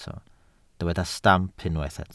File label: "stamp", welsh